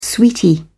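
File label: "sweetie", British English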